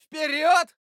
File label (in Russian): "Вперед!", Фраза; Лусио